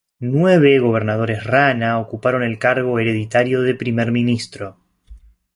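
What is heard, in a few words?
"ocuparon", Spanish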